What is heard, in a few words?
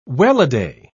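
"welladay", British English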